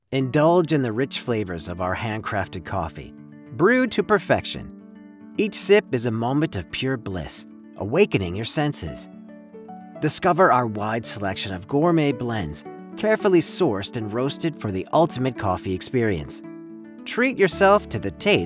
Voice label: Coffee Ad w music; Handcraft Coffee